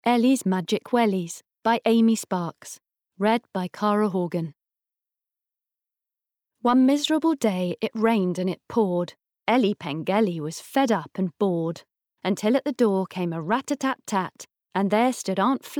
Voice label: Bookread; Ellies Magic Wellies

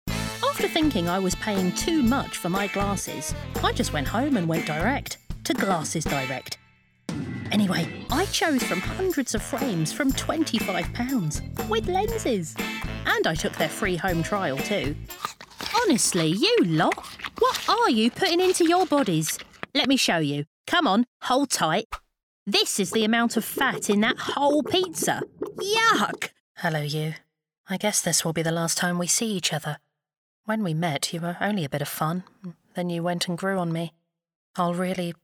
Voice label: Commercials Montage

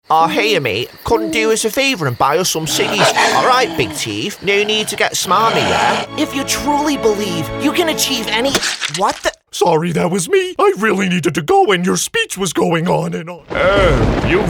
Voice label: character reel

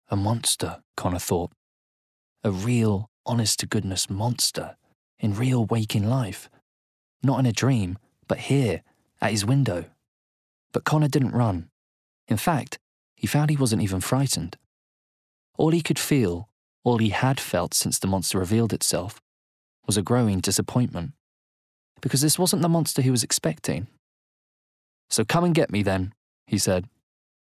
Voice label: Bookread; A Monster Calls by Patrick Ness

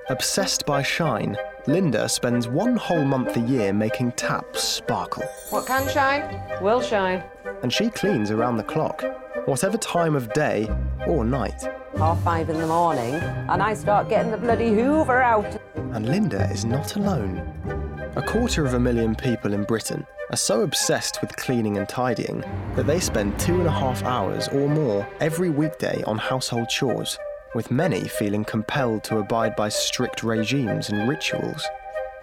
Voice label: Documentary Obsessive Cleaners

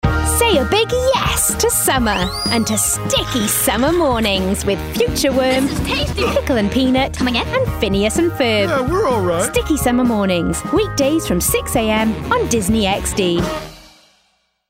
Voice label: PROMO

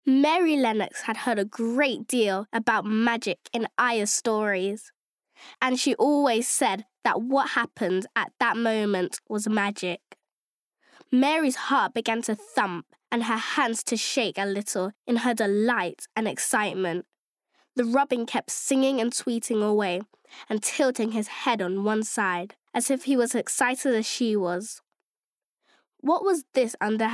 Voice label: Bookread; Secret Garden

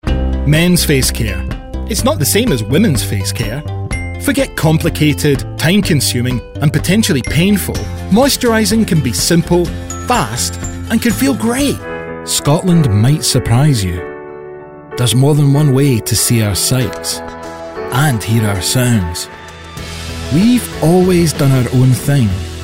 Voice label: Commercial Showreel

